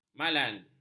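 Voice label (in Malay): "malan", Malay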